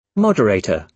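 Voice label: "moderator", British English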